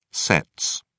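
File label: "sets", British English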